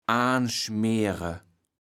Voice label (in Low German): "aanschmeere", kaevels platt